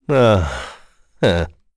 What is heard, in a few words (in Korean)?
Vox Sad, kr; Kain; Kings Raid